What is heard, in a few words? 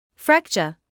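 "fracture", British English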